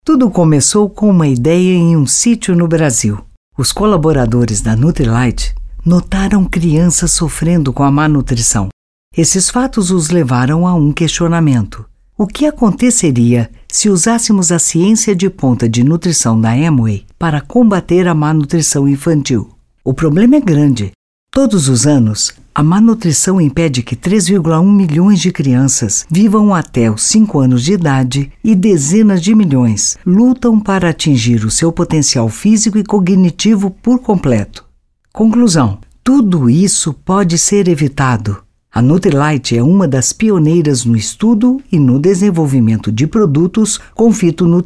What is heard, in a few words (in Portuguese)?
NARRATIVA EMPRESARIAL; AMWAY NUTRILITE